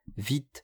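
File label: "vite", French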